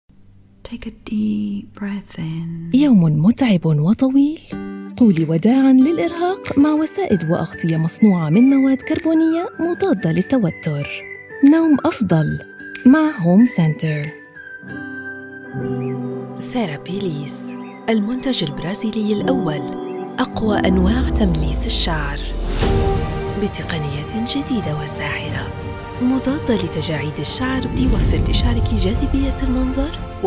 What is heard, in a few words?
Showreel Arabic Classic Commercial